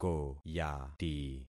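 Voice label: "koyati", concatenated syllables; Affixing experiment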